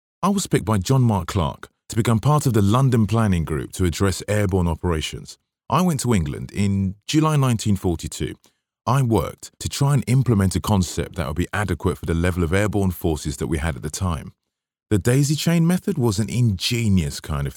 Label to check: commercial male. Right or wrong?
Wrong